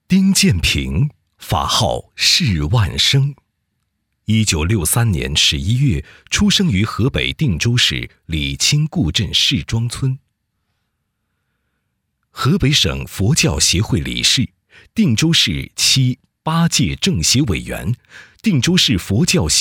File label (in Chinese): 男 纪录片